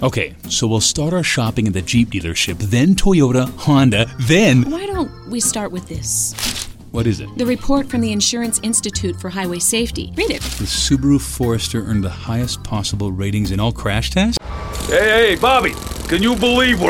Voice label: Commercial